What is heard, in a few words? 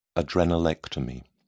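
"adrenalectomy", British English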